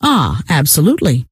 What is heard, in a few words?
absolutely, scientist female